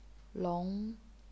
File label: "long2", chinese pinyin